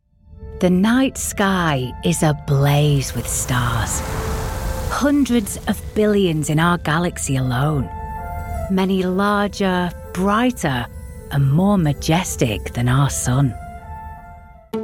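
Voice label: tv documentary narration, Northern Authentic Lancashire Voice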